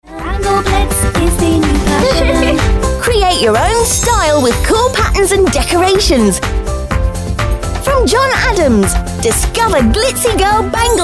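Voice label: British English female TV commercial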